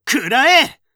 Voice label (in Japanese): "くらえっ！", 戦闘ボイス 青年 中音